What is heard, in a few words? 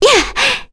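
Vox Landing, voices heroes English; Kirze; kingsraid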